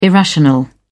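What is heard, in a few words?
"irrational", British English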